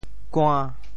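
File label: "kua~5", Teochew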